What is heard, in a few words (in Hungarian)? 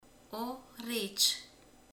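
"medve", Hungarian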